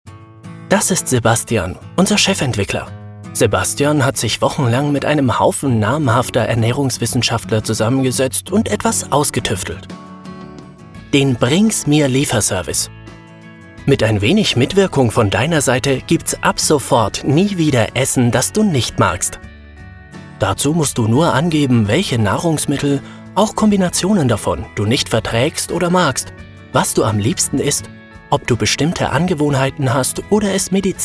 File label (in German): Sprecher Erklaerfilm